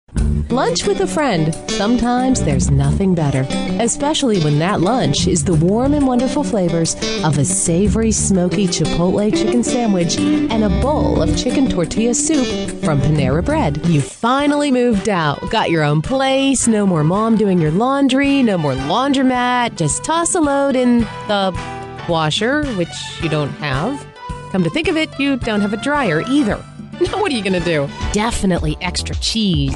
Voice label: Commercials

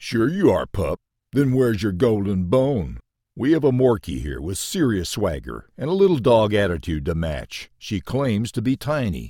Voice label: Character Voice

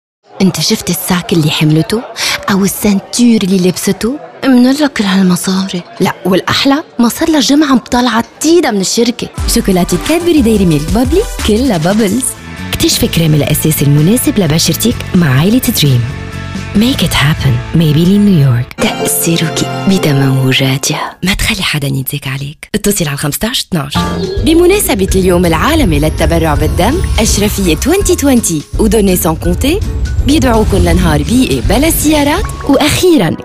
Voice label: Arabic VO

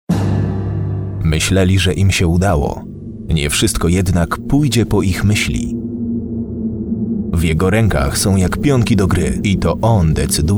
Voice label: trailer

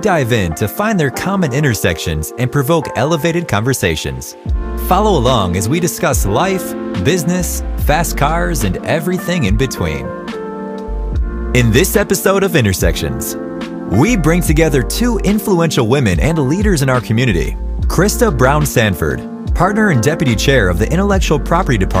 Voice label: Podcast Intro American Male

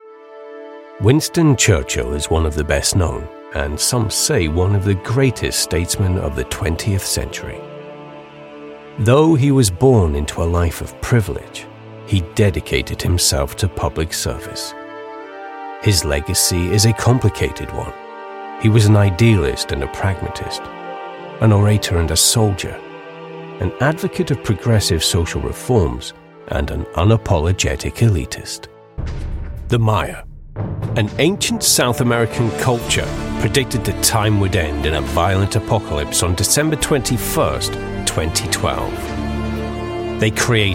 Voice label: Documentary

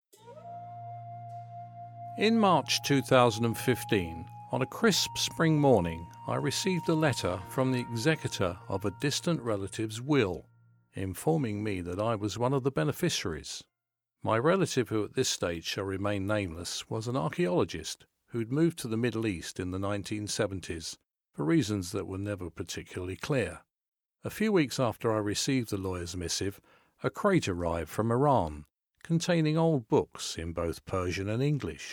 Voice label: Audiobook

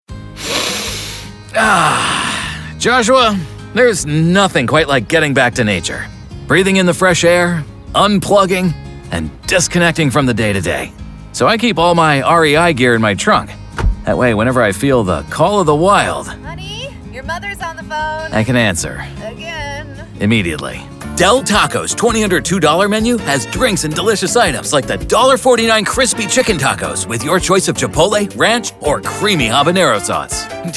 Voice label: commercial

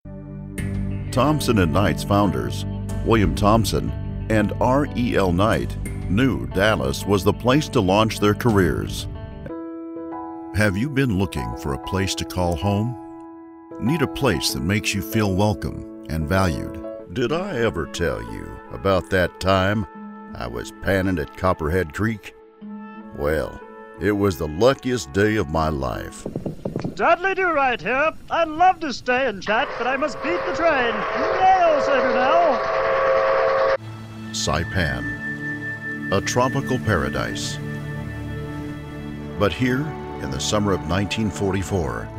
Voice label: VOICE OVER INTRO

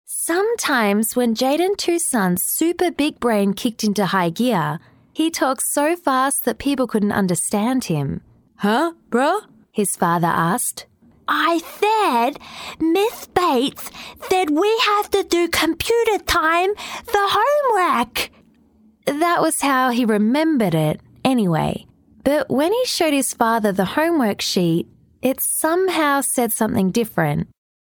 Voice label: Kids AudioBook

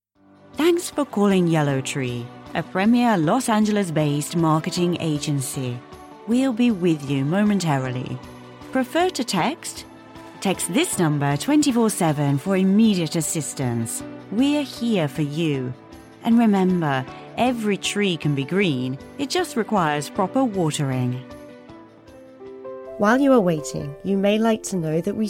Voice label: IVR

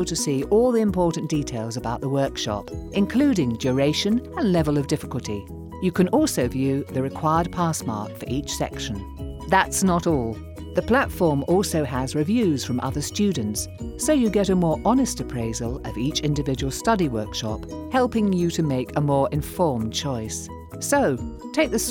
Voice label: Explainer Video Home Learning Course

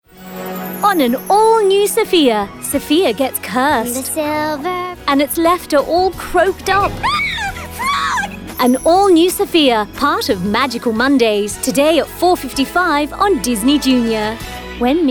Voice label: montage commercial

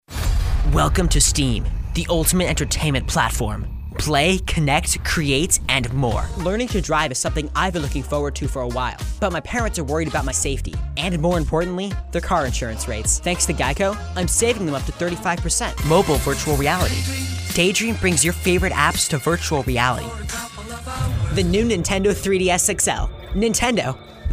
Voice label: Commercial VO

